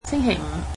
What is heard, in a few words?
Friday, cantonese